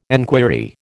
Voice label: "enquiry", American

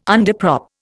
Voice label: "underprop", Indian

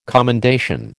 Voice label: "commendation", American

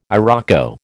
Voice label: "iroko", American

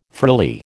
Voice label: "frilly", American

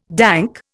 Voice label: "dank", Indian

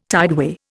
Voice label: "tideway", Indian

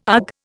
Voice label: "ugh", Indian

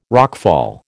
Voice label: "rockfall", American

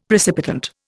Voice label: "precipitant", Indian; Dictionary

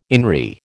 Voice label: "INRI", American

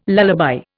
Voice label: "lullaby", Indian

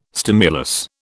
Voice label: "stimulus", British

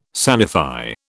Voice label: "sanify", British

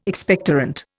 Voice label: "Expectorant", Indian

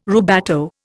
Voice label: "rubato", Indian

